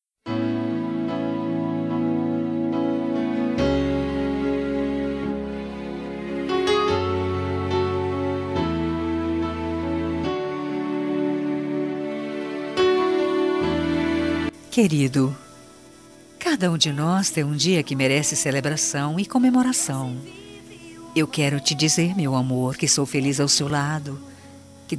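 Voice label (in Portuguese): aniversario evangelico para esposo, telemensagem; telemensagem evangelica aniversario esposa esposo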